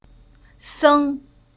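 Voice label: "Seng", Chinese syllable; Уроки фонетического блока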